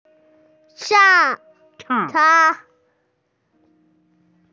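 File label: "chang4", Mandarin Chinese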